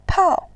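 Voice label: "pao", Mandarin, tone 4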